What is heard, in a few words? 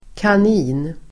"kanin", Swedish